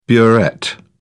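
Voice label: "burette", British English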